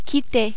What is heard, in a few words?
"quitter", French